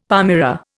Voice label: "palmyra", Indian; Dictionary

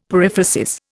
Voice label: "periphrasis", Indian; Dictionary

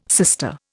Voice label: "sister", Indian

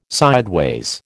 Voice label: "sideways", American

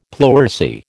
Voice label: "pleurisy", American

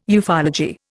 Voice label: "ufology", Indian

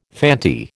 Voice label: "Fanti", American

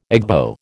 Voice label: "Igbo", American

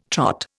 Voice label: "Trot", Indian

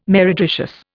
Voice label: "meretricious", Indian

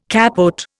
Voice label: "capote", Indian

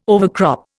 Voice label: "overcrop", Indian

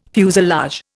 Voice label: "fuselage", Indian

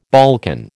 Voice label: "Balkan", American

